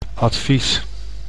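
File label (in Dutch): "advies", Dutch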